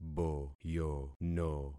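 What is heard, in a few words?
"boyono", concatenated syllables; Affixing experiment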